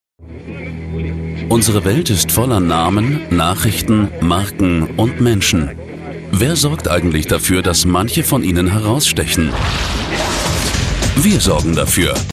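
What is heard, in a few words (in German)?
Kommentar Neutral; Fremdtake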